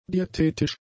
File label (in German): "diätetisch", german; adjectives